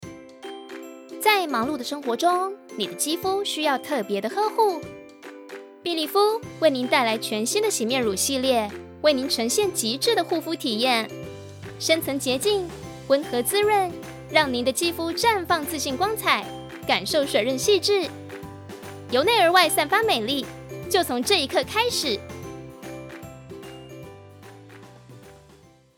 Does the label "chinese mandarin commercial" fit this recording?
Yes